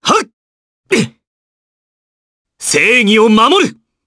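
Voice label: voice, Japanese; Clause; kingsraid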